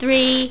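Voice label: "three", spoken; My Preschool Program Demo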